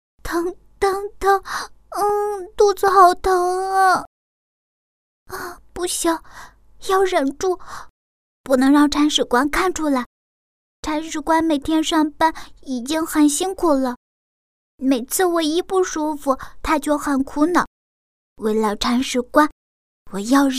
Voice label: 女 女童声 动物拟人 猫

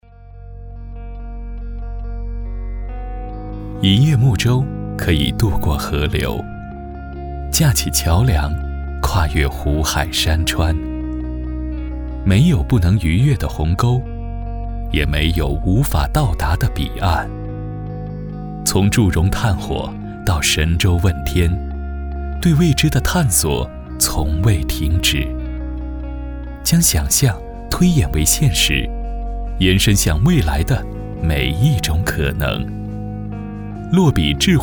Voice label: 男 品质广告 旁白 年轻讲述 宣传片; 上海数慧公司

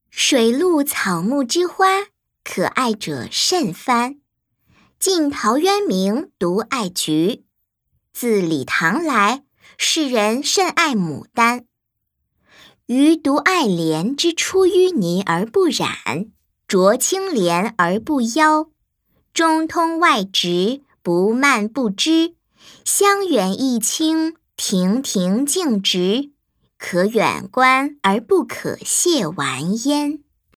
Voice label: 女 童声 女童背诗; 爱莲说

